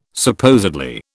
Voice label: "supposedly", British